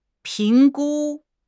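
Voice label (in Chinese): "评估", Chinese; HSK; HSK6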